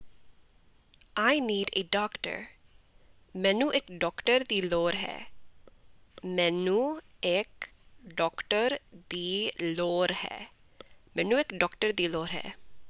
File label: Punjabi I need a doctor